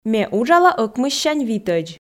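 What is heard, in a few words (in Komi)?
Komi, ме уджала ӧкмыссянь витӧдз, female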